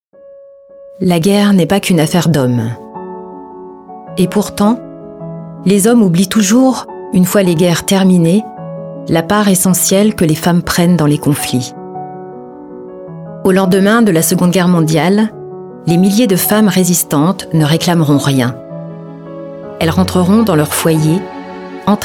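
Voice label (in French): DOCU, Voix off; Elles étaient en guerre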